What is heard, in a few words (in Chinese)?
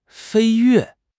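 "飞跃", Mandarin Chinese; HSK6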